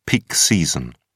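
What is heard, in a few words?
"peak season", British English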